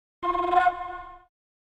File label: age of empires 2 unit creation sound